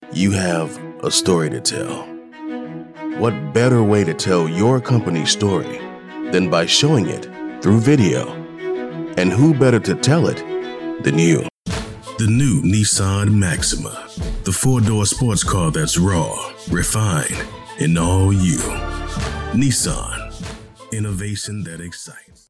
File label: English USA